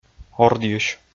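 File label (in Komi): "ордйысь", Komi, male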